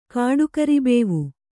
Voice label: "ಕಾಡುಕರಿಬೇವು", Kannada